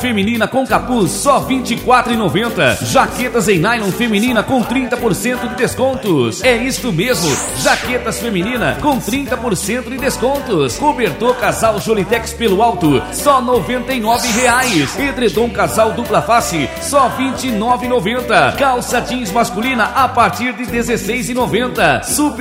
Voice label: locutor vinheta loja

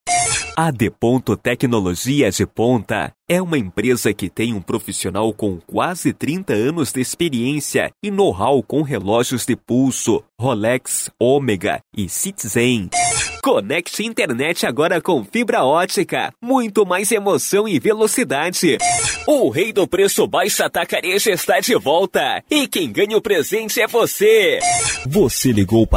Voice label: locutor voz off vinhetas spots propaganda

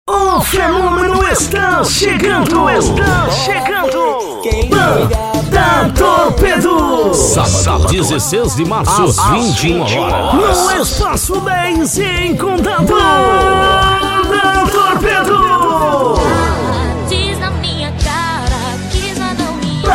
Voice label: locutor chamada

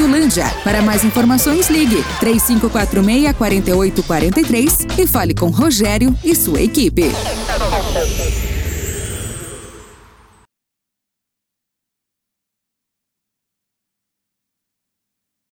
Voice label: spot mil milhas center car

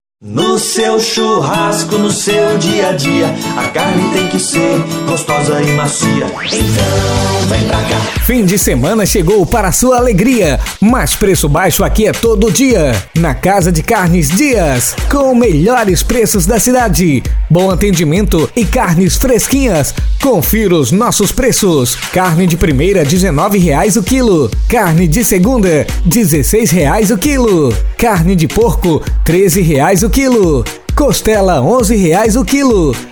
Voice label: locucao vinheta carro de som spot comercial; casa de carnes

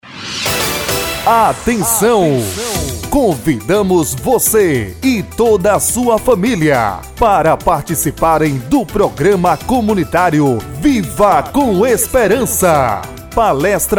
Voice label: locutor trilha; missao calebe penedo

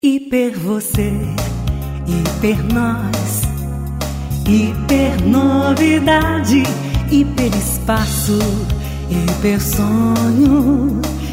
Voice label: comercial; monlevade

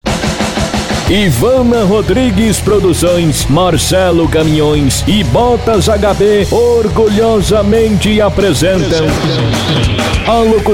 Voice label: voz rodeio, voz impacto rodeio

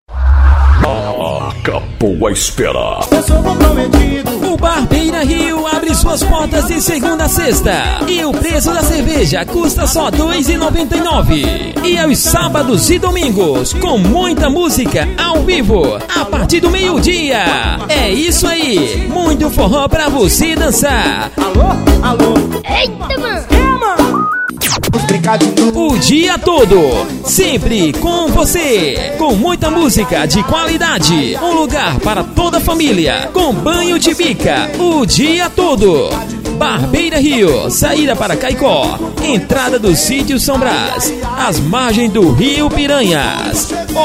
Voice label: spot radio; hs studio bar barira rio

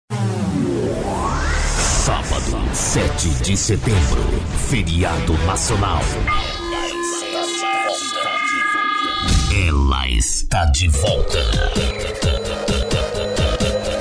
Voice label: locutor

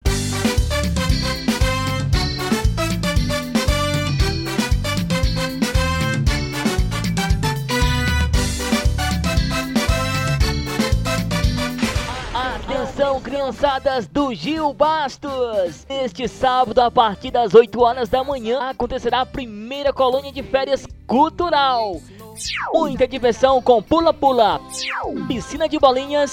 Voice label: locutor vinheta; iraucuba

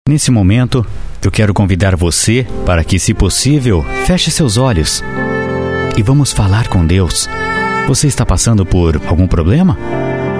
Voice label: oracao com locucao

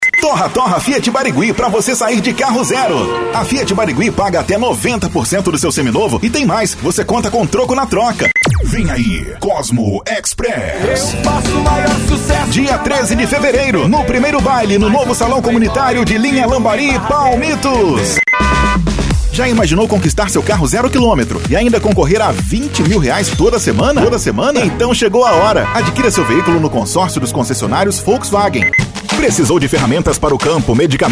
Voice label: locutor

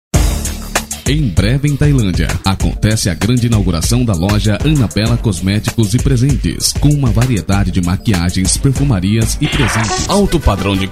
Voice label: locutor demo

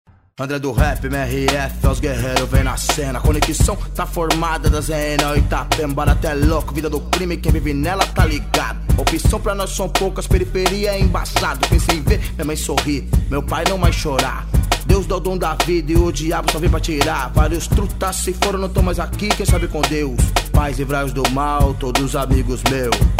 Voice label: introducao rap

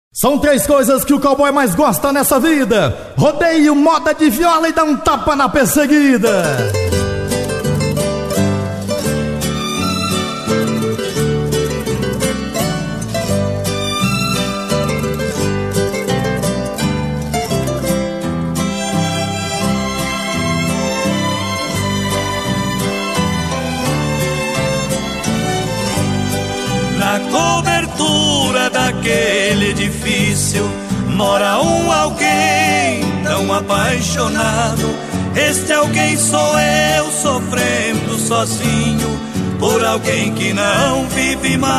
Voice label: locutor verso

